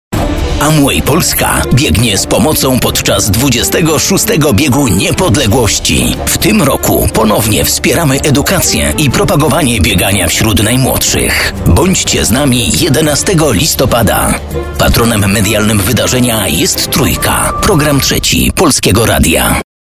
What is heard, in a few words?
spot